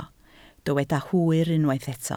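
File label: "hwyr" Welsh, spoken word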